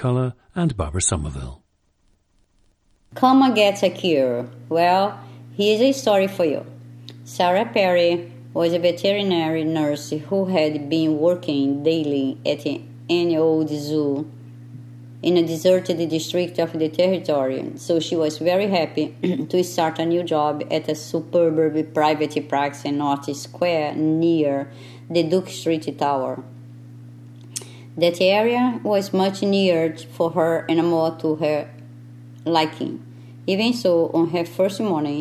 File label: brazil, dialects